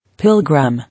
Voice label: "Pilgrim", English